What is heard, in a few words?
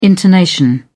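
"intonation", British English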